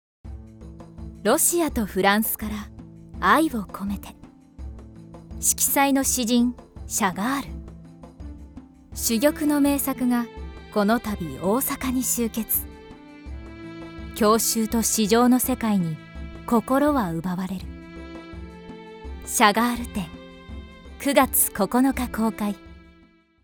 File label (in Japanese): ナレーション